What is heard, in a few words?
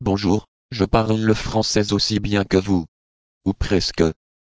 espeak